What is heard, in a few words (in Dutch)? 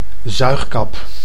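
"zuigkap", Dutch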